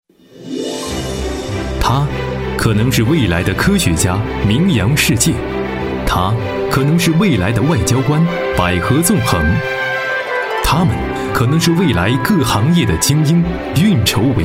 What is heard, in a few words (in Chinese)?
男 专题 学校宣传片